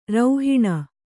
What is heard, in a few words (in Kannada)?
"ರೌಹಿಣ", Kannada